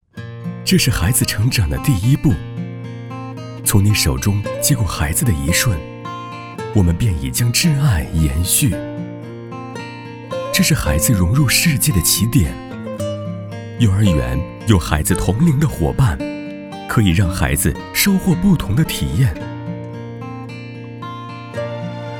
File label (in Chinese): 宣传片年轻温馨磁性启迪幼儿园